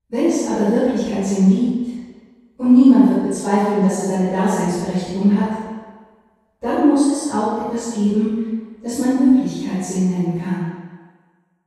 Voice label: meistersaal female; hansa studios